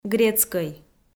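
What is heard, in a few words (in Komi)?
"грецкӧй", Komi, female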